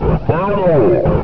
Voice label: throw grenade, combine soldier, far; hl2

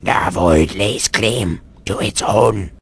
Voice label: wound, vort speech; hl2

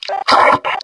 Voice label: surprise; metropolice; hl2

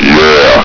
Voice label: "yeah", Combine soldier; hl2

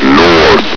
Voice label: "north", Combine soldier; hl2

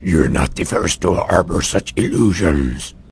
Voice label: answer, vort speech; hl2

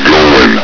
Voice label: "going", Combine soldier; hl2

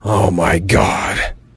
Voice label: shock; borealis; hl2

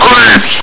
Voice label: "creeps!", combine soldier; hl2

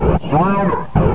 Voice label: flank, combine soldier, far; hl2

